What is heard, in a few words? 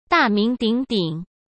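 "大名鼎鼎", Mandarin Chinese; chinese flashcards; hsk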